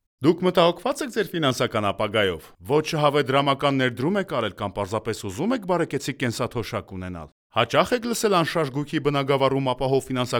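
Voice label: Armenian, male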